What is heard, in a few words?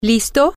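female, spanish; ready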